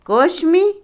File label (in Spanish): Otomí, mujer; Acciones; frotar; INALI